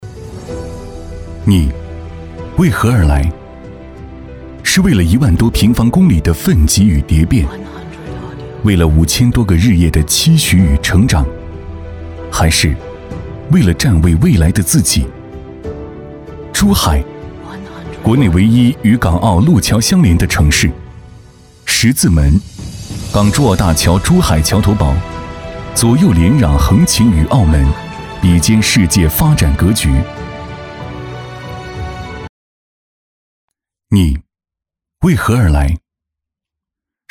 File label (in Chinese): 男 走心 珠海国际会展中心二期